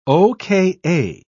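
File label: "oka", British English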